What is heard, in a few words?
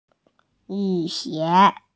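"rain boots", Mandarin Chinese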